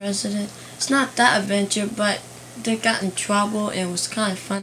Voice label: Conversation with 12 year old male Virginia, american english dialect